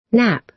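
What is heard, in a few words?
"nap", American English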